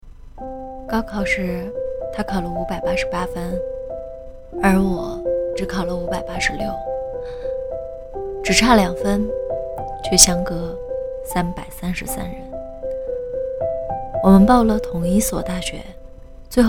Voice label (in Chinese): 有声情感混音